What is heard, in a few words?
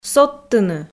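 "содтыны", Komi, female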